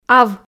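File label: "ав", Komi, female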